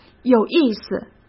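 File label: "有意思", Mandarin Chinese; chinese-flashcards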